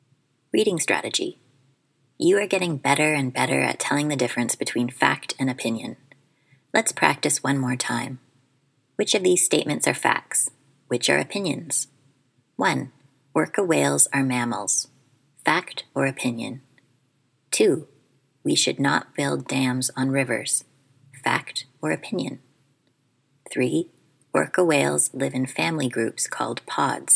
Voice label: Spy Hopping Reading